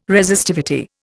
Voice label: "Resistivity", Indian